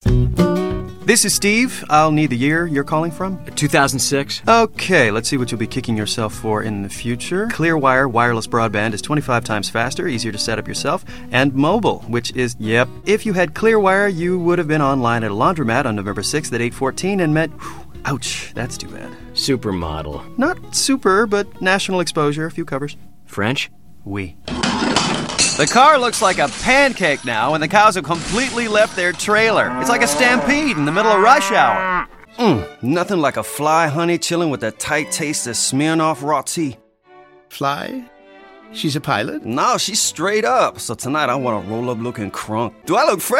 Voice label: voiceover commercial men; New York